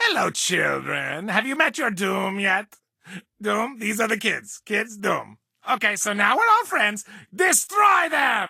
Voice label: English Voice

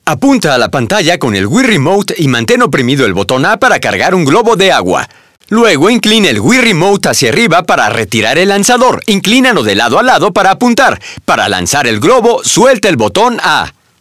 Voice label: Spanish - waterballoon vo

